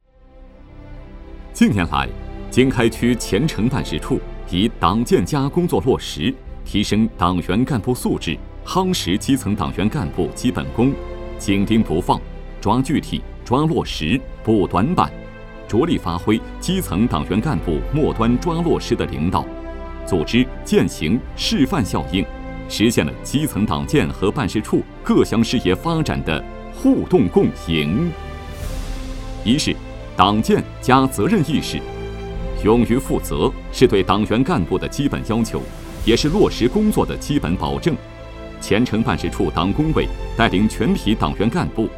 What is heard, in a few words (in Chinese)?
汇报片